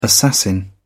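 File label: "assassin", British English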